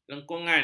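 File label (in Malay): "lengkungan", Malay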